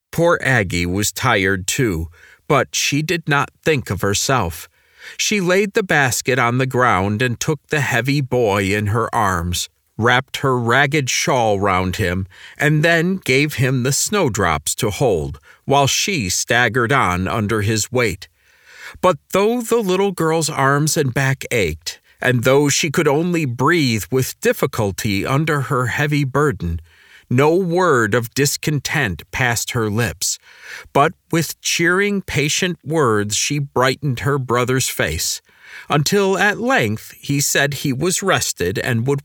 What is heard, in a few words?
Audiobook; Snowdrop Story